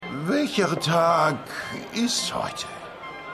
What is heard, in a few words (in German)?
Betrunkener Mann, Stimmproben